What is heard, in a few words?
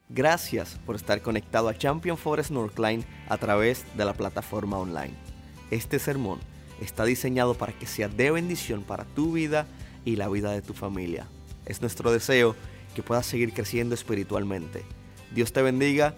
Sermon Spanish